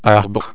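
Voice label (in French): "arbre", French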